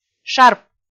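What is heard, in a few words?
"шарп", Komi, female; правильно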